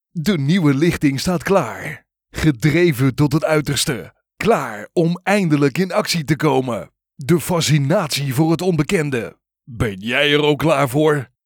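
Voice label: Natural speak Enticing attractive native Dutch, male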